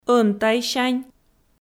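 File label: "ӧнтайсянь", Komi, female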